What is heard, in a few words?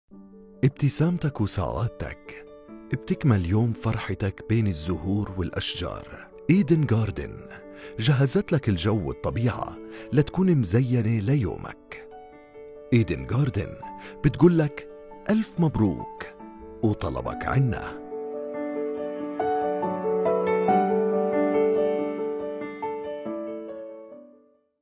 Jordanian